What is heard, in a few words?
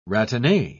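"ratine", British English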